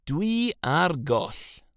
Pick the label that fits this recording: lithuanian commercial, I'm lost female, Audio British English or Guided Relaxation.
I'm lost female